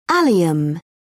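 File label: "allium", British English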